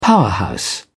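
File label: "powerhouse", English, British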